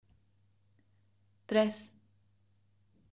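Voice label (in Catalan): "tres", Catalan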